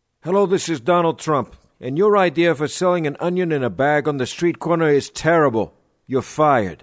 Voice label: Character; Donald Trump